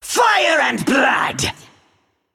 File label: Male Naga Attack